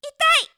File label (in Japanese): 「痛い」, 驚く少女